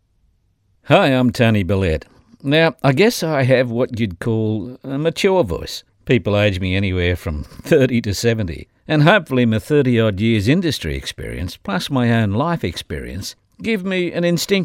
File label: Straight or soft sell